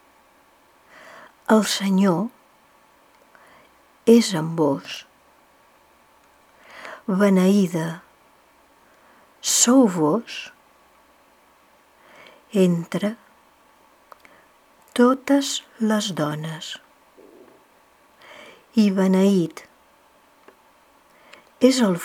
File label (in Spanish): ave maria catalan pausado